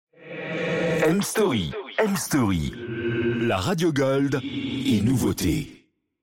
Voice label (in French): Drop Radio gold et nouveautes